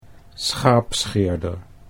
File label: "schaapscheerder", Dutch